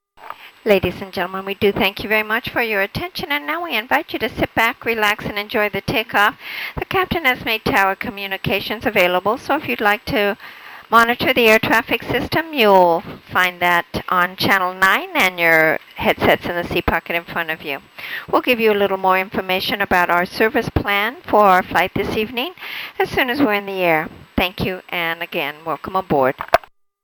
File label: Attendant Takeoff